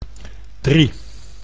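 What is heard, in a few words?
"drie", Dutch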